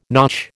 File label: "notch", American